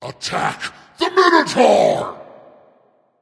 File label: vox attack m; smite; bakasura